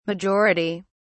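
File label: "majority", British English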